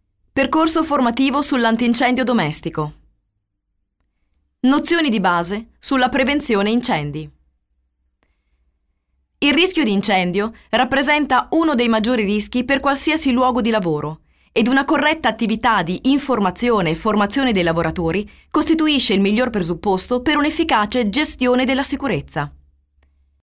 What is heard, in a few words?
e-learning